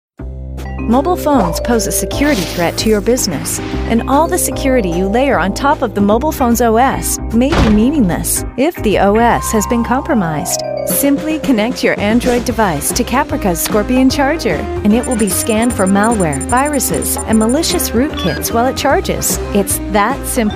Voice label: eLearning, Female, british

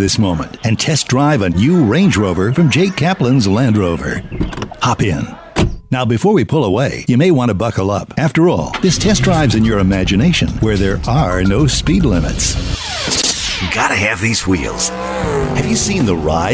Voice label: Commercial Male

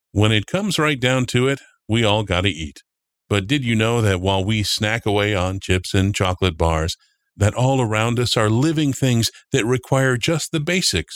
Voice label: eLearning Male; Narration, eLearning

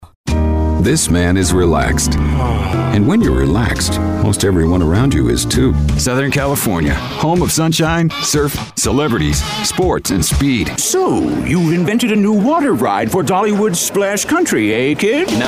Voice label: Commercial Male

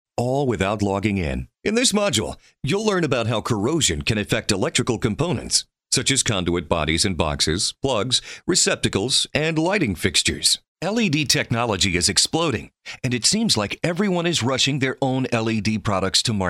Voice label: eLearning Male

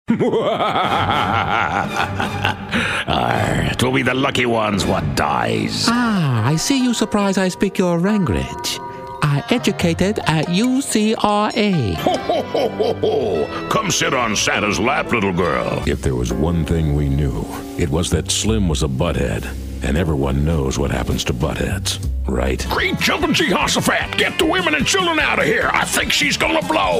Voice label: Characters Male

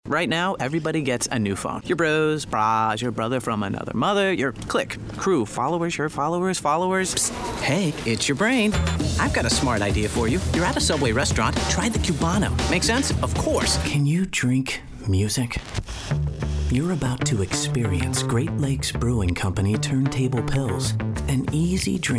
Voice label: Commercial

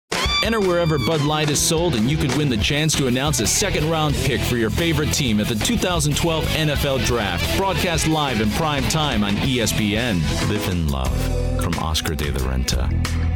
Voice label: Commercial Male

